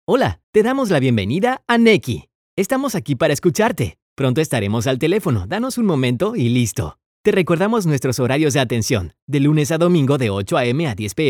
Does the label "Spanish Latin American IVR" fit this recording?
Yes